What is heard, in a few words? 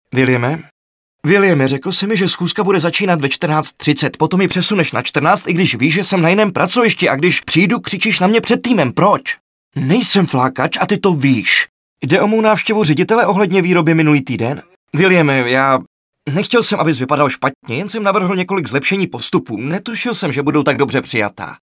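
Male Czech drama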